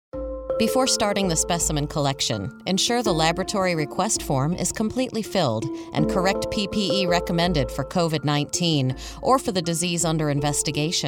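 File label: medical voice over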